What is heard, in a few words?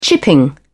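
"chipping", English, British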